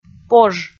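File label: "пож", Komi, female; kpv audio dict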